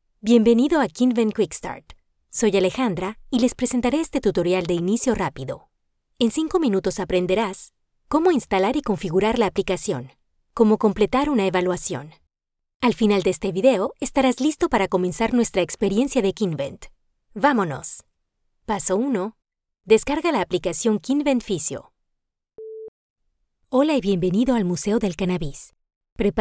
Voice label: Latam Spanish Elearning